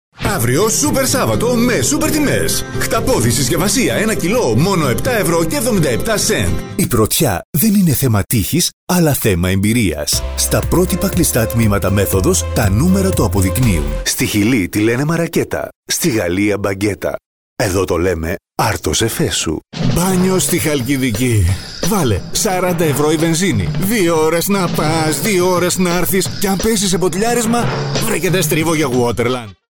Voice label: male greek voice over sales

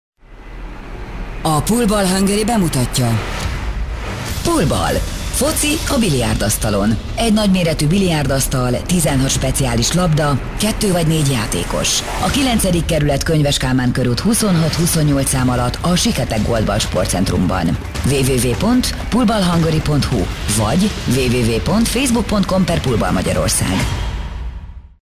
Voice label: female hungarian voice over sales

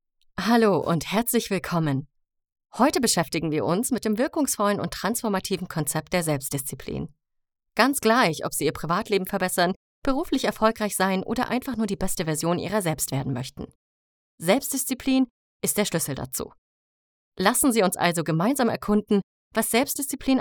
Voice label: female german voice over narrative

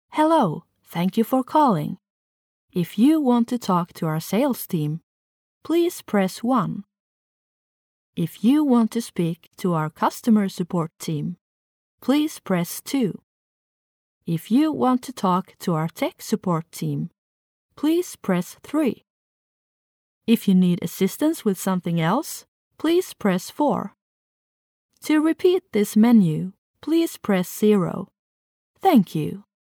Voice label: female swedish voice over english

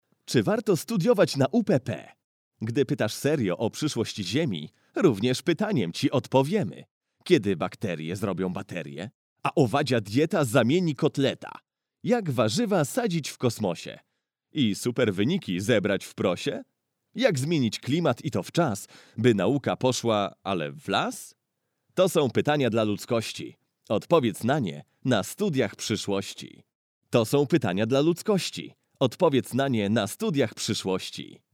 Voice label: male polish voice over talent upbeat

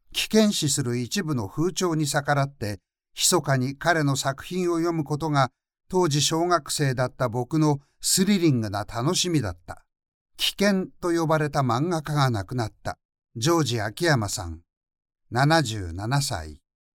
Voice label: male japanese voice over talent narrative

